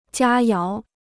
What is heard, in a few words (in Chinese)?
"佳肴", Chinese